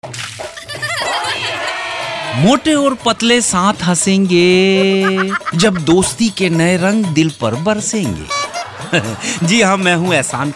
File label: Radio AD Sweeper